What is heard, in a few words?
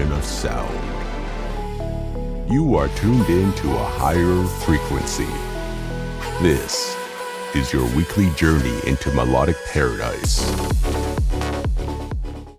TRANCE ANNOUNCER